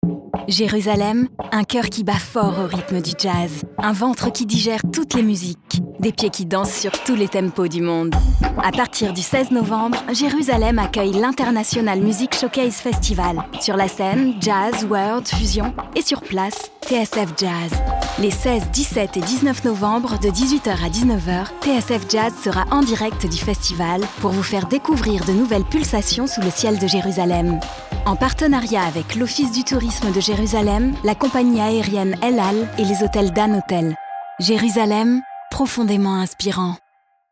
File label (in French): Dynamique Souriante; LANCEMENT RADIO TSF JAZZ